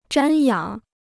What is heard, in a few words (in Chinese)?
"瞻仰", Chinese; zhān yǎng; to revere; to admire